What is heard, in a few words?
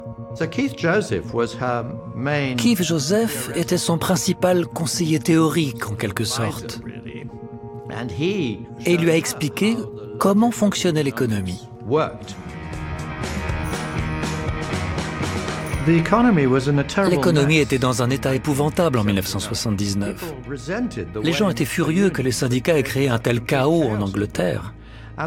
VoiceOver; Patrick Minford; Thatcher Inoxydable